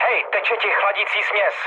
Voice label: damaged water, speech cze, mood low; War Thunder